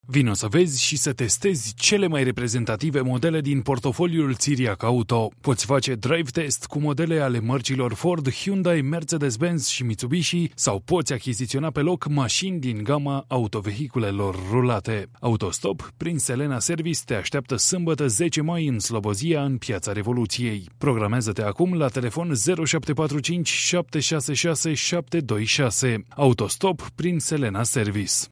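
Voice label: Romanian, male, corporate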